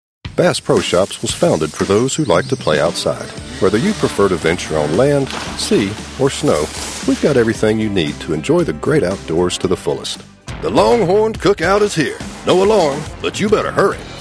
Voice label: Professional American Male Voice Over Talent; rich, resonant voice with the warmth and grit of the American South